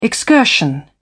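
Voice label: "excursion", British English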